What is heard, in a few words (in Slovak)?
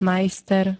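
"majster", Slovak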